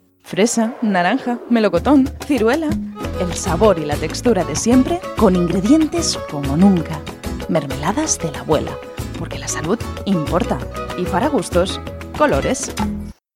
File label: Spanish Spain